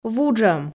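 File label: "вуджӧм", Komi, female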